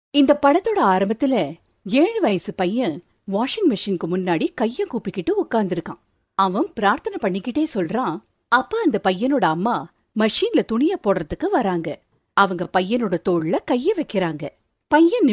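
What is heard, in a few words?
Tamil female voice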